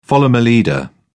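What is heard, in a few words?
"follow my leader", British English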